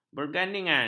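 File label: "bergandingan", Malay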